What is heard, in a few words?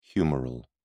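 "humeral", English, British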